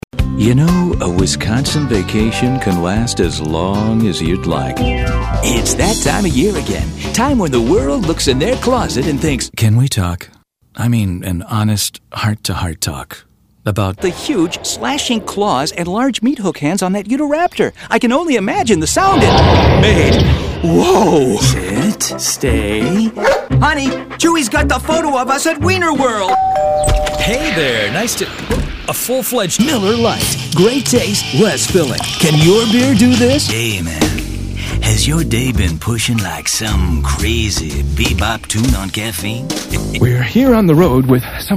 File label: Voice Commercial